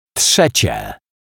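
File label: "trzecie", Polish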